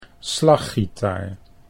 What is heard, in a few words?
"slaggitaar", Dutch